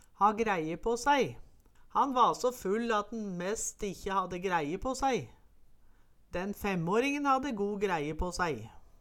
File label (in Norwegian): "ha græie på sæi", Numedalsmål